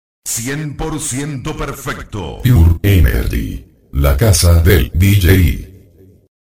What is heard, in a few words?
spot; 100%pure energy